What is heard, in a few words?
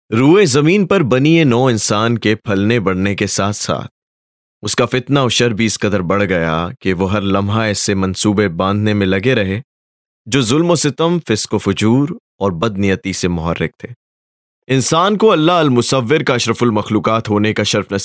Urdu Male DOCUMENTARY